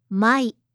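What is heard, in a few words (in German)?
"Mai", japanische Mädchennamen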